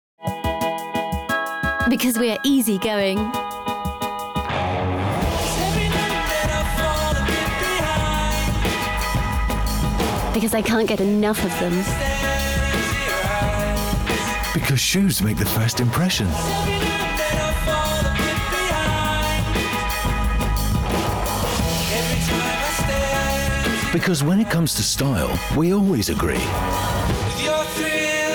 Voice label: uk; deichmann 2014; bbc

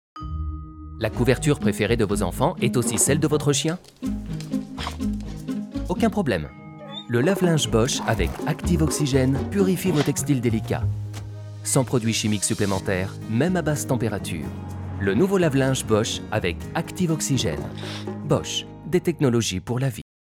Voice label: tv advertising; bosch

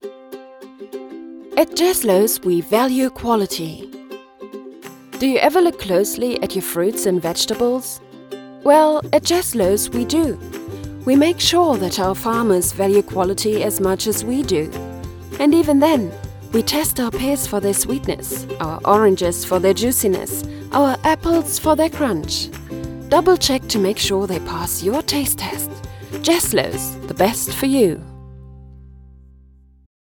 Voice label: COMMERCIAL

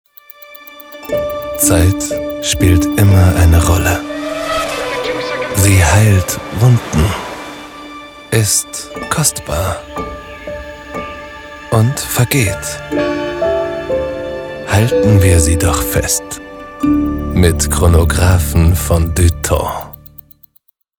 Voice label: Commercial; Du Temps Clocks; LOFT VOICES

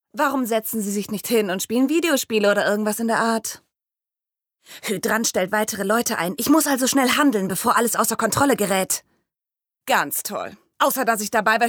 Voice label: Animation

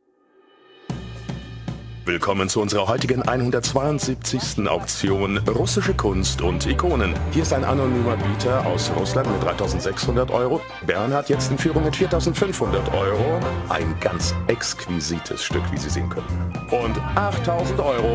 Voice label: watch auction highlights de

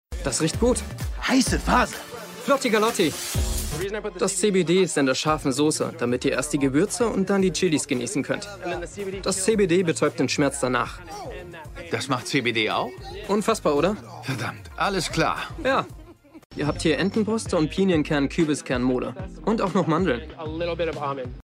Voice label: Voice Over

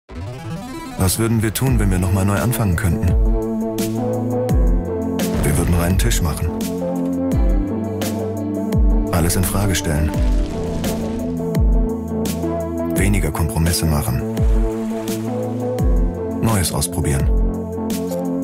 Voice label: advertisement tv; innogy

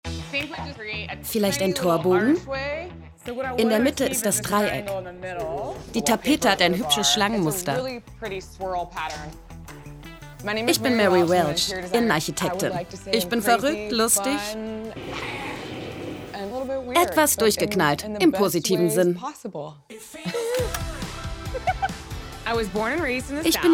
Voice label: VoiceOver

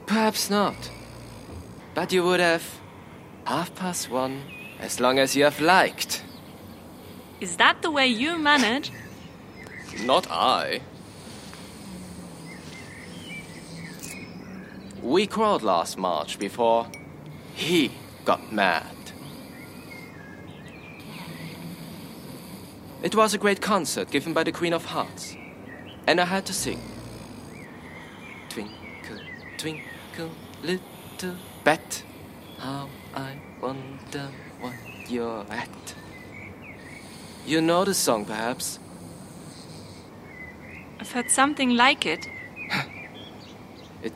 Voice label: english; LOFT VOICES

